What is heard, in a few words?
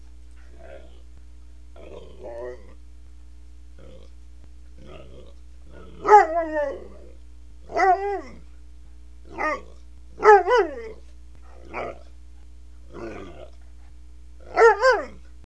Cozy Talking